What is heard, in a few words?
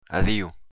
goodbye, occitan